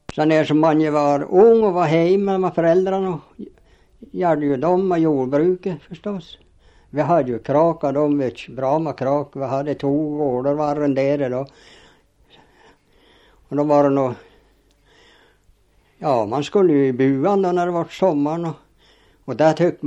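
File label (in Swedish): Sundsjö Jämtland, dialekter; Folkminnen; Svenska sagor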